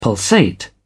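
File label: "pulsate", British English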